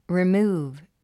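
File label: "remove", English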